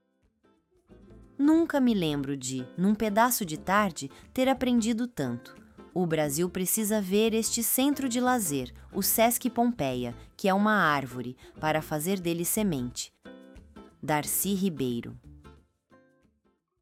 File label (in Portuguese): narracao; citacao dercy